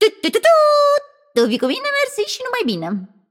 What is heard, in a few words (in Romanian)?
Room2 VO Object Interact, vo, ro-ro